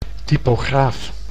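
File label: "typograaf", Dutch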